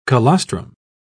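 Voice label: "colostrum", British English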